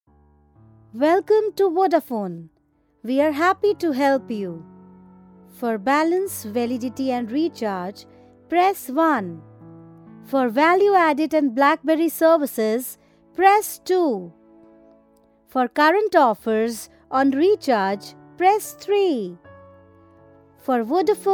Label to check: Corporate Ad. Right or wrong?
Wrong